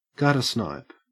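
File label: "guttersnipe" Australian English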